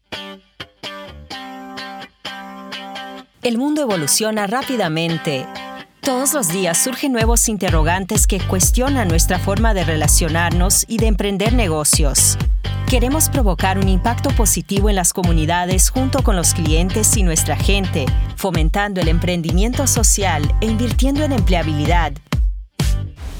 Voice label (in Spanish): Español Neutro Joven Mix